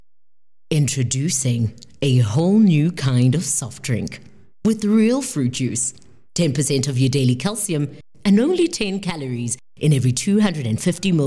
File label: English bright; OSM Talent